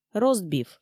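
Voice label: "ростбиф", Komi, female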